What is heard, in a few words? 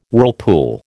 "whirlpool", American